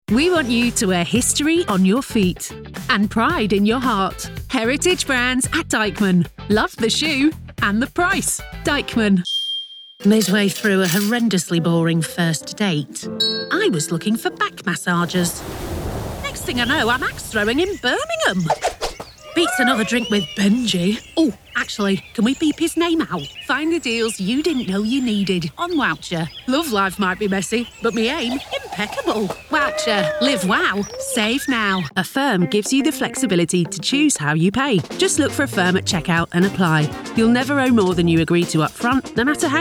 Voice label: Commercial